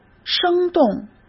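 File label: "生动", Mandarin Chinese; chinese flashcards; hsk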